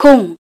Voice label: voice kor zero, keytone; SKY IM-A760S-VEGA-Racer